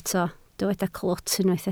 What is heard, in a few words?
"clwt", Welsh